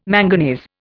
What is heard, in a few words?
"manganese", Indian